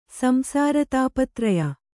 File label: "ಸಂಸಾರತಾಪತ್ರಯ", Kannada